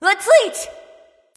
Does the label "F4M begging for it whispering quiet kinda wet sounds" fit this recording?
No